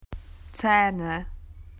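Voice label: "teeth", Australian English; PictureDictionary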